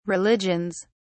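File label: "religions", British English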